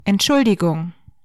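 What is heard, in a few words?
"Sorry" German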